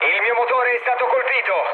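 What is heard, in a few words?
Italian damaged engine mood low, speech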